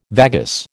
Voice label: "vagus", American

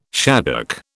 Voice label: "shaddock", British

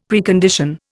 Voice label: "Precondition", Indian; Dictionary

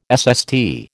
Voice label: "SST", American

